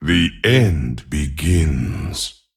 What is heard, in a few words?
Male Anubisath Play